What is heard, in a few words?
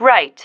"right", female